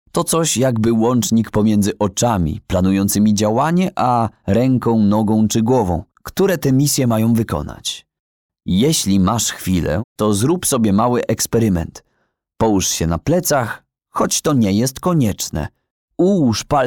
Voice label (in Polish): audiobook cwiczenie